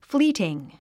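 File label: "fleeting", English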